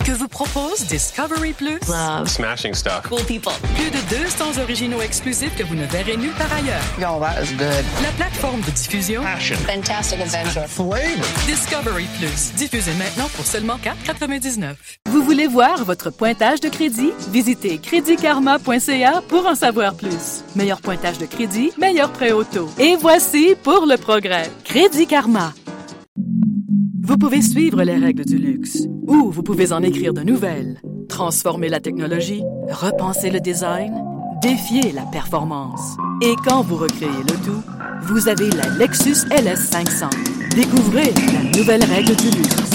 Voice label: French Canadian commercial